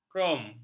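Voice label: "krom", Malay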